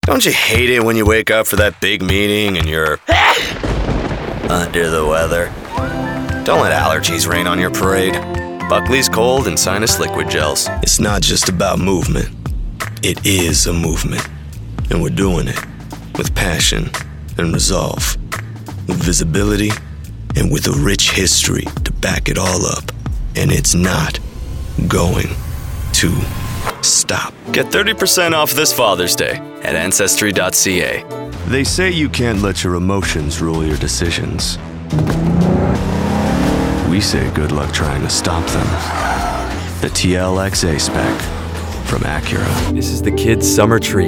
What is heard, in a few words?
Commercial Reel